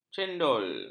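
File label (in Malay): "cendol", Malay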